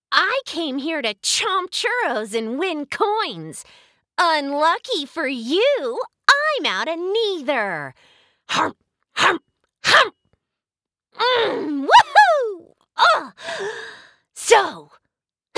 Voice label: Fun, up beat; chomp coins